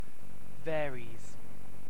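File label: "varies", British English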